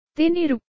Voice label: "తేనీరు", Telugu; thee-in-andere-talen

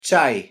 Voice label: "чай", Bulgaars; thee-in-andere-talen

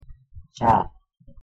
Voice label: "tsa", Cebuano; thee-in-andere-talen

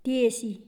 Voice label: "tiesi", Nluu westelijk; thee-in-andere-talen

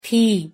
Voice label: "ティー" Japans; thee-in-andere-talen

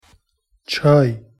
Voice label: "چای", Ottomaans Turks; thee-in-andere-talen

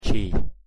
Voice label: "чей", Tsjoevasj; thee-in-andere-talen

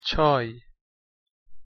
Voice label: "چای", Perzisch; thee-in-andere-talen

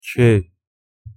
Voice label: "чей", Tsjoektsjisch; thee-in-andere-talen

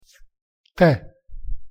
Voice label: "tè", Corsicaans; thee-in-andere-talen

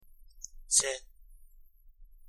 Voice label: "цә", Kalmuks; thee-in-andere-talen

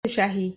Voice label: "ሻሂ", Tigrinya; thee-in-andere-talen

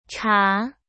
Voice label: "茶", Jin; thee-in-andere-talen

